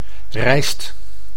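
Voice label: "rijst", Dutch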